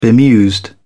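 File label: "bemused", British English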